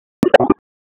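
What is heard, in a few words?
EMS DISP, radio; wake; p25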